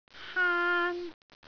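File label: "haan1", Cantonese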